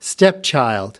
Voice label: "stepchild", us